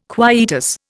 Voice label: "quietus", Indian